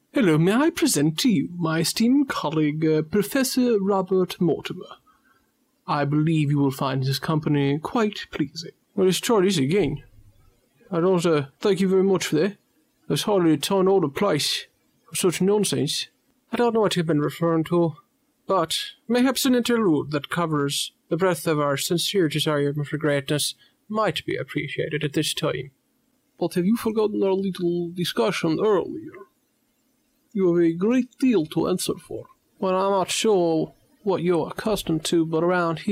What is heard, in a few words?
Accents